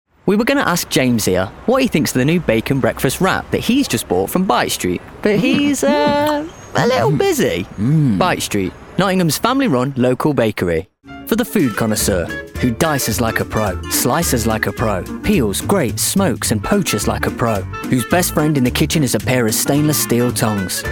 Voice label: COMMERCIAL

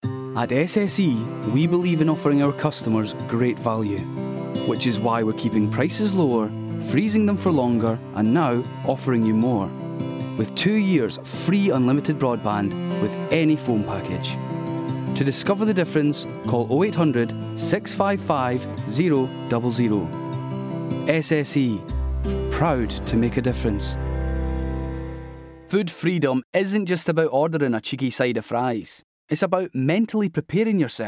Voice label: Speed Commercials

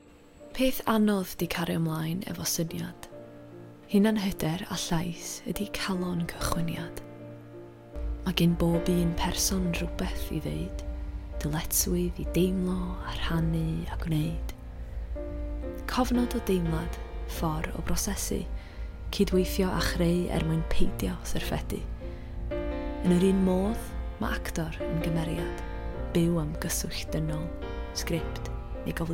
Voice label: Welsh

